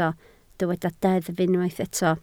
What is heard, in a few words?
"deddf", Welsh